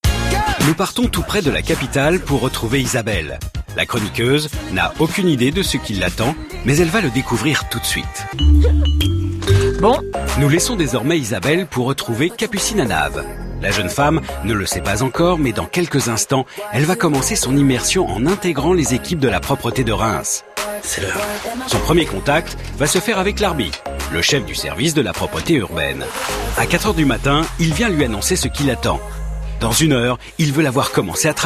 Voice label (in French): Voix Off Enquêtes; TPMP